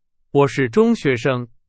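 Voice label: Chinese, example sentence; hsk1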